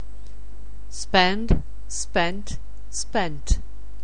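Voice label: "spend", English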